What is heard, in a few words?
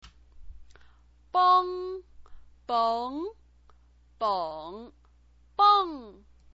"beng", chinese pinyin